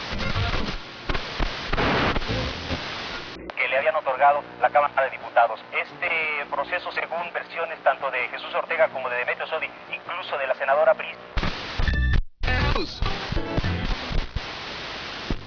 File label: spanish radio